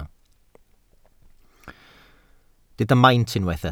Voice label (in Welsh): "maint" Welsh